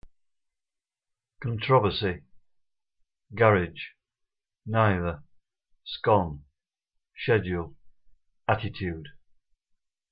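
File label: huddersfield male, accent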